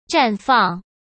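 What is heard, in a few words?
"绽放", Mandarin Chinese; chinese flashcards; hsk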